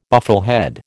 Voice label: "bufflehead", American